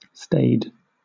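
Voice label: "staid", British English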